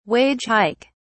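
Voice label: "wage hike", British English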